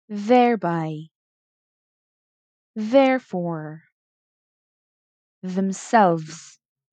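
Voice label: Two Syllable Initial d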